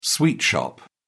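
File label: "sweet shop", British English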